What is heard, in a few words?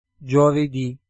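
"giovedi", Italian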